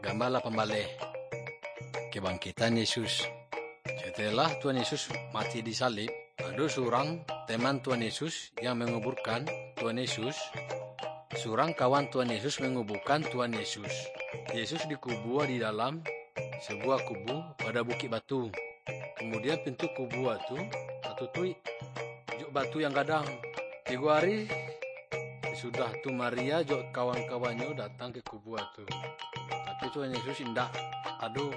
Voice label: good news minangkabau muko muko; kaba ilo